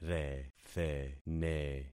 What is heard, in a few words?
"rethene", concat syllables; Affixing experiment